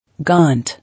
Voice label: "Gaunt", English